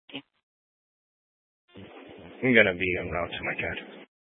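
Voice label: Sheriff Dispatch, Scanner audio recording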